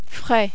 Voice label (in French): "frais", French